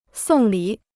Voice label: "送礼", Chinese

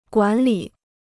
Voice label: "管理", Chinese

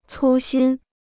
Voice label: "粗心", Chinese

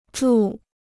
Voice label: "住", Chinese

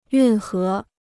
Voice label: "运河", Chinese

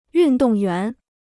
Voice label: "运动员", Chinese

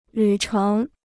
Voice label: "旅程", Chinese

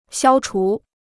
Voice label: "消除", Chinese

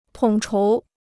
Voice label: "统筹", Chinese

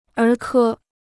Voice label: "儿科", Chinese

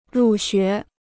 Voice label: "入学", Chinese

